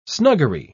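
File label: "snuggery", British English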